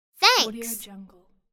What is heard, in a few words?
child saying "thanks"